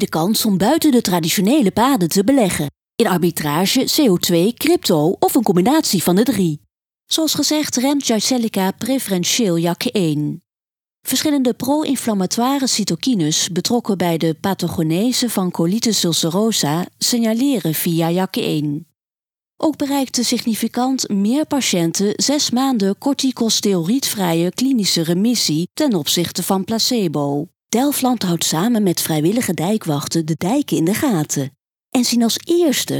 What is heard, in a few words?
Voice reel voice over